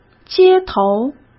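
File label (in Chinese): "街头", Mandarin Chinese; hsk; chinese-flashcards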